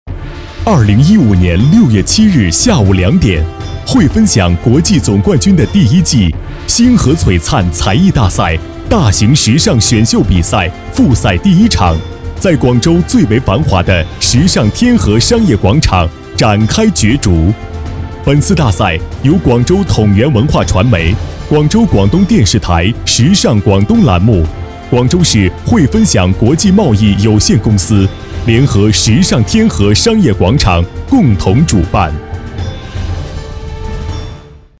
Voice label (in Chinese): 男 广告 片头片花 厚重; 大赛